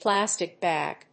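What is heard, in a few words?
"plastic bag", English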